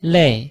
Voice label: "lei", Chinese